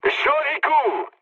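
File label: French bomb success mood high; War Thunder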